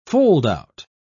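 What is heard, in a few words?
"foldout", British English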